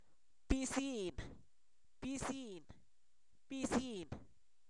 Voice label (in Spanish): ratón, Zapoteco, san miguel albarradas; animales; INALI